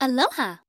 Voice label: "aloha", hawaiian